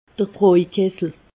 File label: "brojkessel", alsace